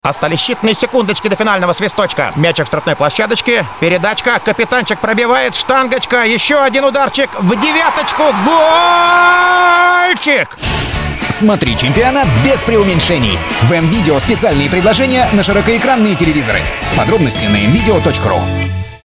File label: commentator